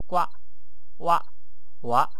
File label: "uaq", wu chinese; 刮挖划